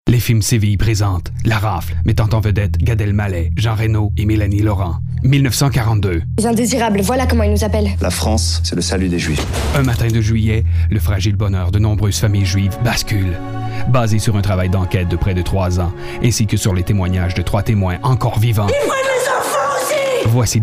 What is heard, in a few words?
Trailer